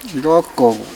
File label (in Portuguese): "rabo", kalapalo